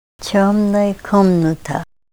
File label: dark room, Ninilchik Russian